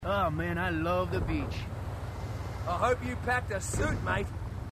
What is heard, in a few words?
marine conversation; h2dialogue